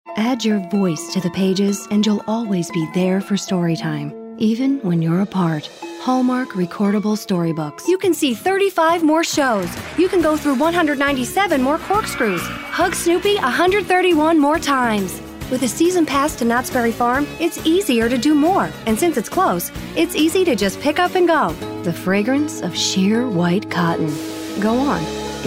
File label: Commercial